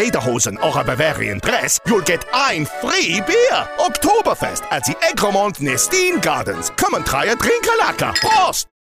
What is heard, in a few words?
german guy parody